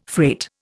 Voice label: "freight", Indian

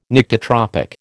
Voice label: "Nyctitropic", American

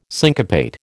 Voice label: "syncopate", American

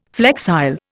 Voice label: "flexile", Indian

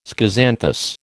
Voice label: "schizanthus", American

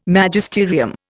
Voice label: "Magisterium", Indian

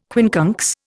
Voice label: "quincunx", Indian

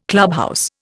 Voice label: "clubhouse", Indian

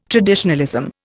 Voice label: "traditionalism", Indian

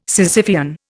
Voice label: "Sisyphean", Indian

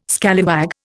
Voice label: "scallywag", Indian

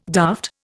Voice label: "daft", Indian

